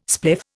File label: "spliff", Indian